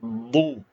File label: short voyels arabic alphabet